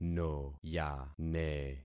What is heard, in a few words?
"noyane", concat syllables; Affixing experiment